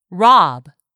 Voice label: "rob", English; 3000 words